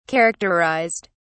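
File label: "characterised", British English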